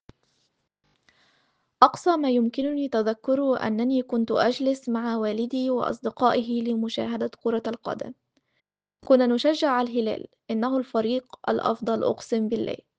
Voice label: Arabic